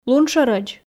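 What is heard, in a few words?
"лун шӧрӧдз", Komi, female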